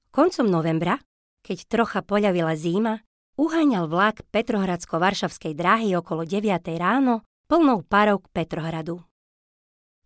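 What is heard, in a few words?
Slovak, General; GoLocalise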